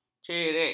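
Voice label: "cerek", Malay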